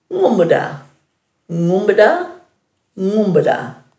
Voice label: "ngumbudhaa-", Dhurga